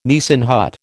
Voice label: "Nissen hut", American